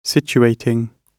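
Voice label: "situating", British English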